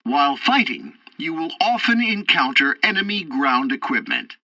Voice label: tutorial fighter ground fight, english; War Thunder